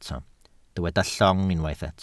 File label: "llong", welsh